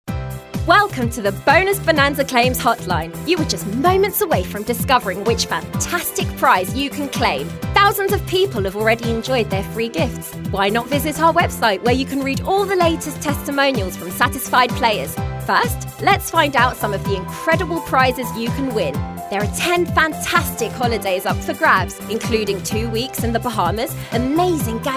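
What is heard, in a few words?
British English, Corporate; GoLocalise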